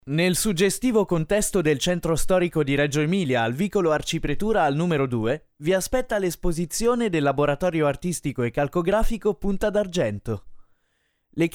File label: ITALIANO IMPOSTATa